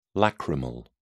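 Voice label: "lacrimal", British English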